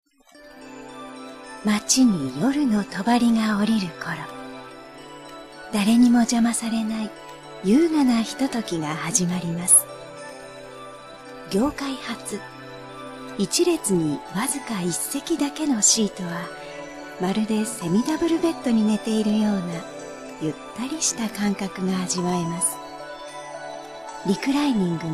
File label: highway bus cm